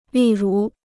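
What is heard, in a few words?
"例如", Chinese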